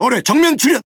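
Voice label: Speech Alarms Torpedo Ahead, Korean; World Of Warships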